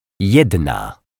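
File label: "jedna", Polish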